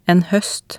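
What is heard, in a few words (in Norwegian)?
"en høst", Norwegian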